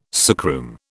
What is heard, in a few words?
"sickroom", British